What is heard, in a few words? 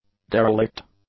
"derelict", english; adjectives